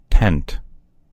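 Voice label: "tent", American English; gcompris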